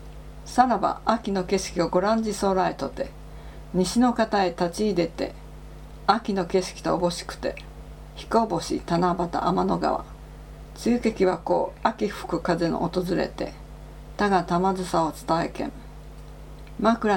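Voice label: extract from Tale of Urashima Taro, Classical Japanese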